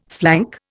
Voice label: "flank", Indian